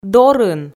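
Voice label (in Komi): "дорын", Komi, female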